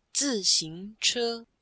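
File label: "zixingche", Chinese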